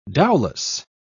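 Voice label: "dowlas", British English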